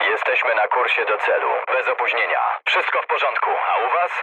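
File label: Polish chat; War Thunder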